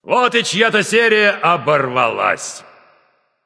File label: kill streak breaker, russian; caster